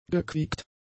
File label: "gequiekt", German; adjectives